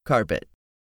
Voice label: "carpet", english; 3000 words